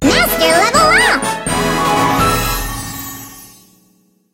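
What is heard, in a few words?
level up master, English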